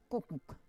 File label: "kokuk", Roon